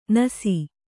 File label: "ನಾಸಿ", kannada